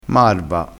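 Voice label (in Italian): "marva", dialettale crespinese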